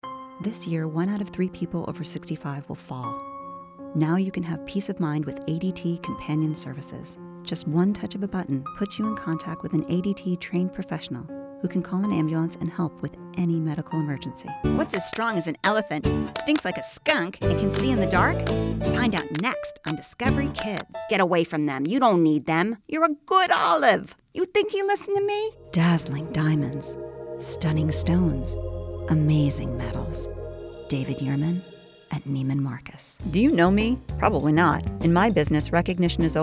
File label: Commercial VO